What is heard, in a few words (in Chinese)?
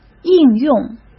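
"应用", Mandarin Chinese; hsk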